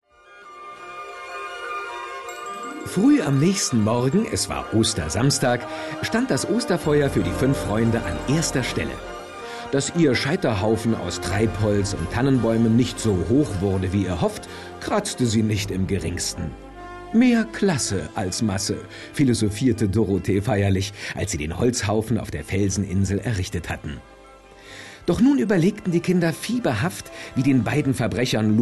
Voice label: erzaehlung

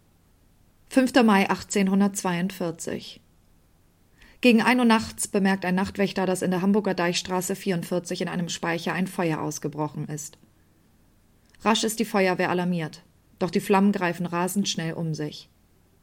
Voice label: Sprachprobe sachtext

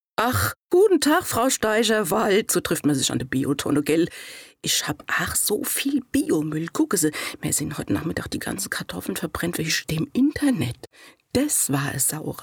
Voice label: dialekt

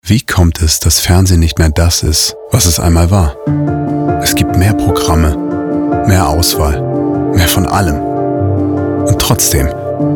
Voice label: Hörproben Innerer Monolog Gedankenstimme

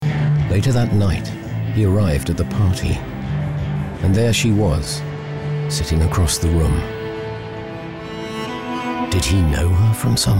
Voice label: uk englisch; voxhaus hh

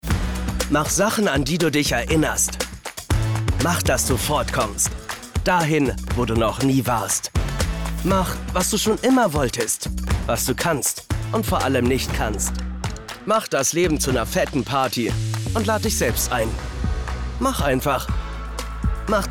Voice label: Werbung Energy

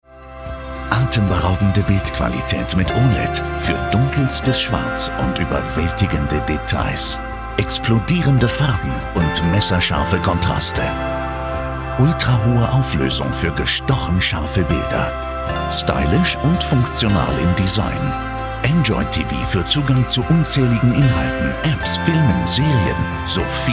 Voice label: imagefilm technik; METZ blue; LOFT VOICES

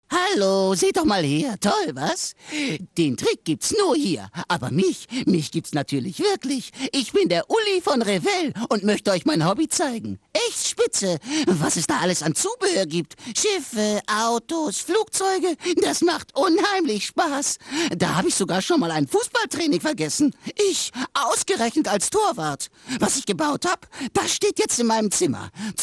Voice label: trickstimme

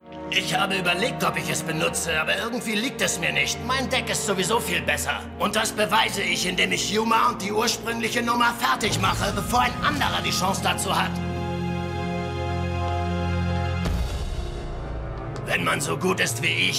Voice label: synchron anime; yugioh zexal; nistro

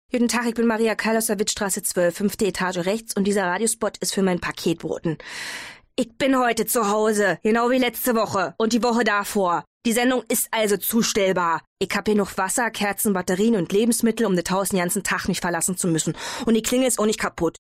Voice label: Werbung Berlinerisch; LOFT VOICES

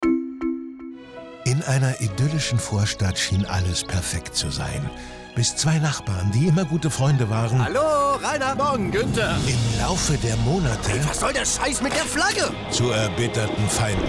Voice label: spot funk; sportschau rolle

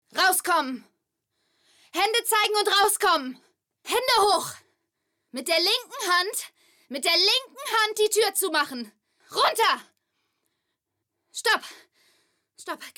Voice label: synchron

